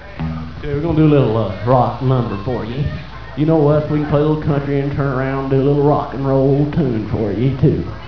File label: mumble